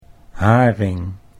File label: "haring", Dutch